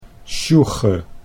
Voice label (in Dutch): "sjoege", Dutch